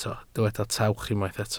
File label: "tawch", Welsh